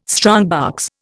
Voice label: "strongbox", Indian